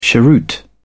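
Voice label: "cheroot", British English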